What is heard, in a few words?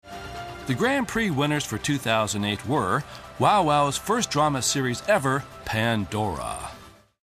radio short